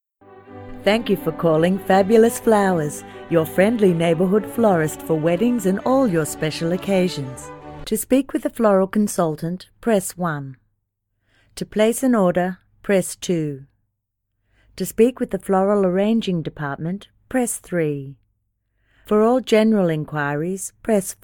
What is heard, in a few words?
Telephone Message On Hold